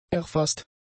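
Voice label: "erfaßt", German; adjectives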